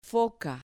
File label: "foca", Spanish